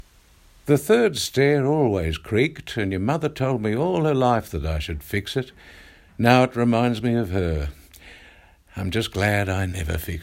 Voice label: old man, character voices